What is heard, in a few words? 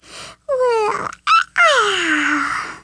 BORING, Speech, Polish; Worms Armageddon